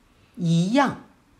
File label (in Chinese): "一样", chino